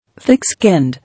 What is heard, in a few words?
"Thick-skinned", English